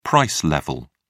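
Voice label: "price level", British English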